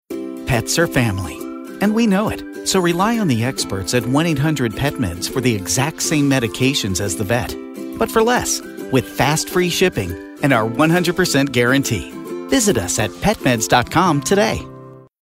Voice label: conversational; pets

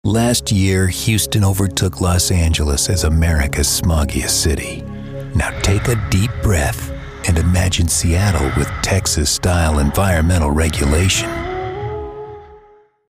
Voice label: ominous

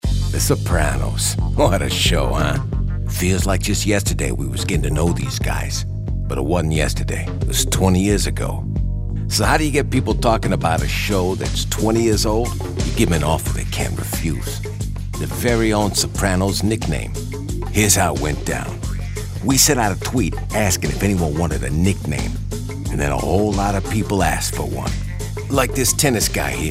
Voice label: character

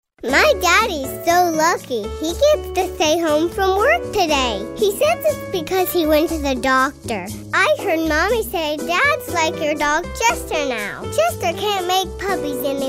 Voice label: storyteller

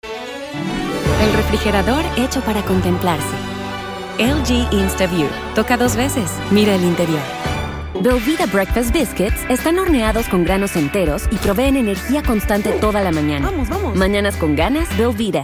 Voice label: Commercial

